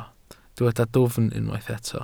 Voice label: "dwfn", Welsh

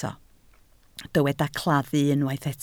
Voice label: "claddu", Welsh

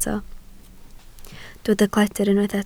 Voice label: "cledr", Welsh

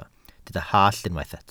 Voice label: "hallt", Welsh

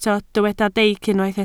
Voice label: "deic", Welsh

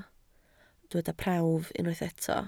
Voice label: "prawf", welsh

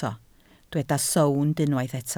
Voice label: "sownd", Welsh

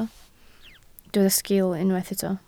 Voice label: "sgiil", Welsh

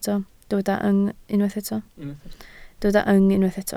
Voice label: "yng", Welsh

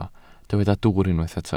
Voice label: "dwwr", Welsh

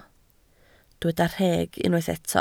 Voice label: "rheg", Welsh

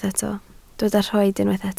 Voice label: "rhoid", Welsh

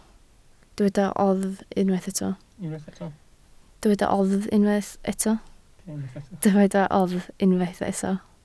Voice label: "oddf", Welsh

